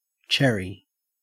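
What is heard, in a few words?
"cherry", Australian English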